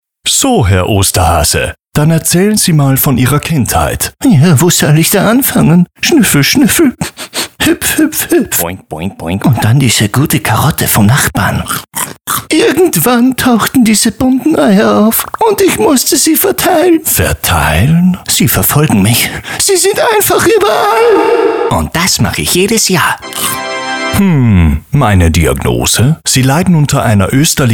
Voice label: male, Austrian, German with light Austrian accent comic commercial